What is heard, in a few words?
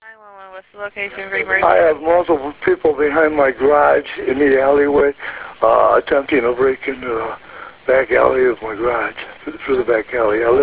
911 call; First 911 call; Garage Breakins; Marijuana Thieves